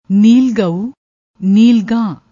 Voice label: "neel gau", Punjabi